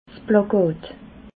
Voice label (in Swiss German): "plakat", alsace